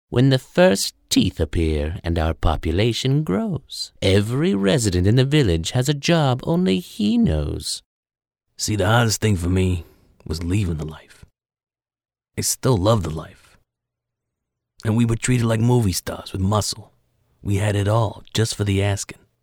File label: Character voices